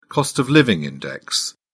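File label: "cost-of-living index", British English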